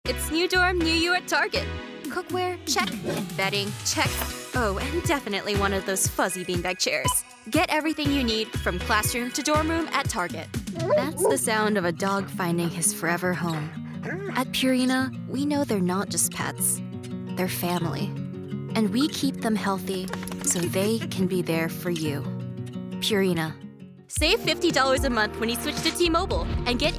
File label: Commercial